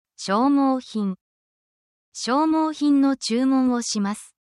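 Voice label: Japanese word, essential Japanese phrases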